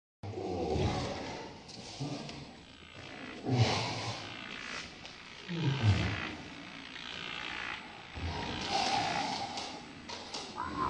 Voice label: crushing hypno